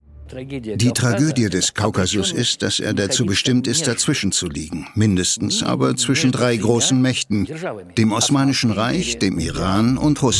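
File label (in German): Voice Over, Sprecher, Männer; Pulverfass Kaukasus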